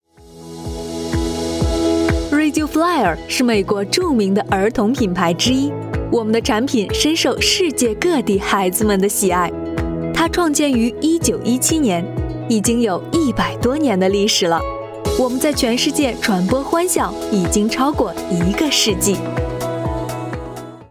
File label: chinese mandarin corporate videos